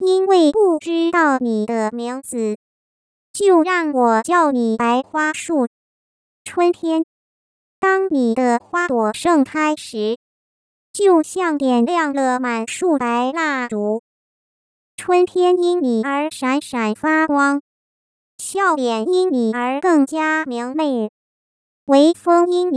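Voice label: Synthetic Speech, Mandarin singing voice synthesis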